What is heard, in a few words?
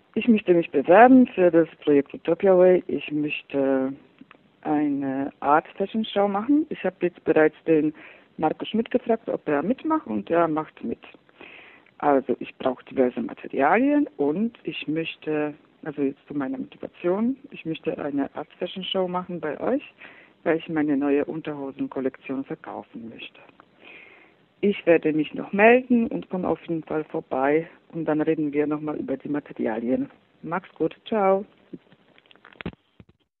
Voicemail